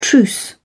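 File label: "truce", British English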